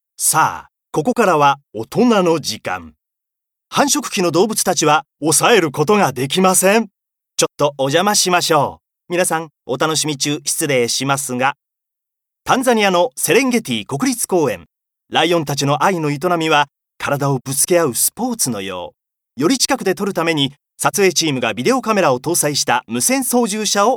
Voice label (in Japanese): ドキュメンタリーナレーション